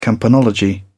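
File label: "campanology", British English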